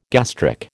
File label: "gastric", American